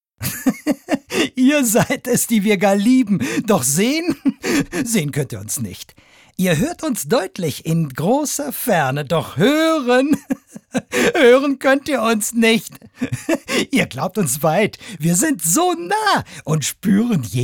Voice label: froehlich, maenner

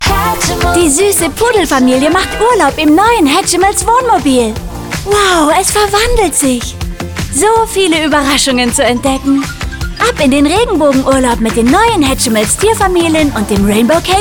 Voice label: OFF, frauen; Hatchimals; Rainbow Camper

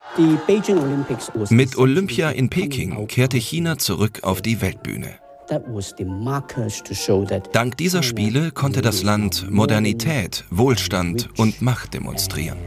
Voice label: Voice Over, maenner; China der entfesselte Riese

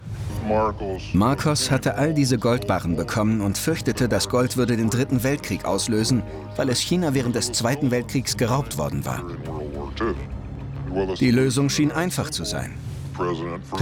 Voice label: Voice Over, maenner; Lost Gold of WWII

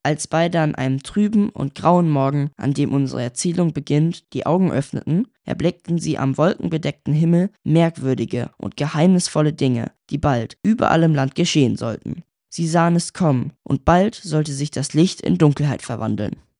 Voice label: jungen; Licht in Dunkelheit

